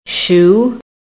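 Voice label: "shoe", American English; PHONETIC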